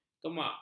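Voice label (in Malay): "kemak", Malay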